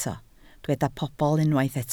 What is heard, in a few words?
"pobl", welsh